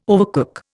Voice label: "overcook", Indian